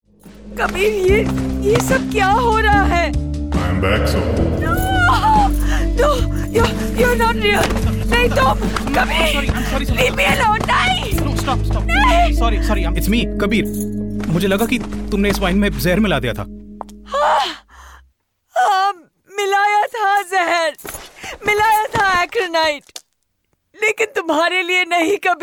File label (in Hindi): hindi master vo reel